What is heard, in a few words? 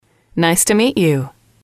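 "nice to meet you", English, female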